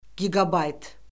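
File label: "giqabayt", Azerbaijani; Orfoqrafiya Lüğəti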